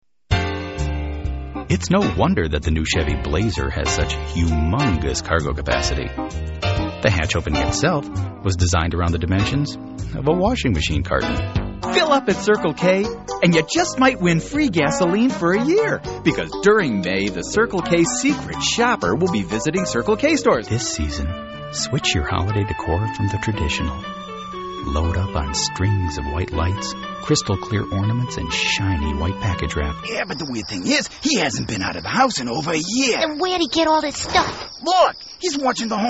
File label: voiceover male